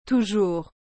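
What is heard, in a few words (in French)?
"toujours", French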